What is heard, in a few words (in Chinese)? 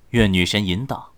胜利, 年轻的修士, BattleCry; ycrpg